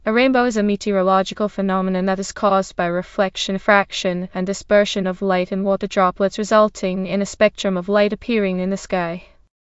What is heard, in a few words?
speaker, en GB; piper voices; vctk